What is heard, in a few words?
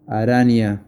Spider, spanish